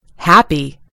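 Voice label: "happy", American English; gcompris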